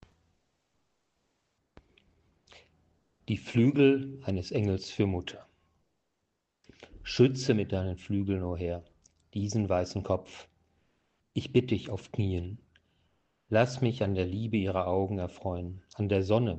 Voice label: german

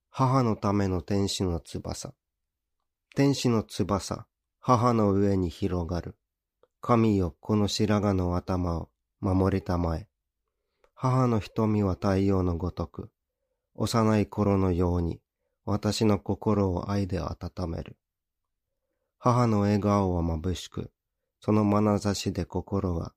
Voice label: japanese; Japonų (JPN)

